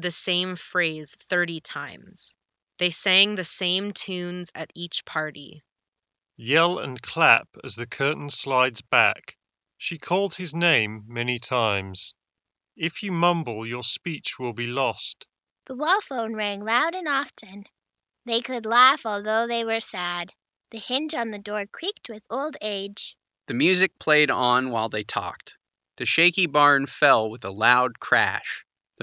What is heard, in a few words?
HyperX Cloud Core Ideal microphone sample